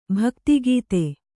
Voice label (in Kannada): "ಭಕ್ತಿಗೀತೆ", Kannada